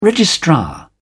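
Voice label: "registrar", British English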